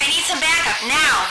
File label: backup, radio, female